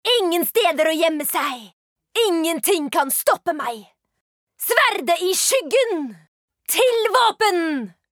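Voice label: Norwegian character tough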